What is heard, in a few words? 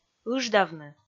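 "ыждавны", Komi, female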